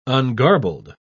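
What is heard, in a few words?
"ungarbled", British English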